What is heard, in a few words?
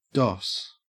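"doss", Australian English; pronunciations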